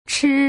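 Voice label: "chi", Putonghua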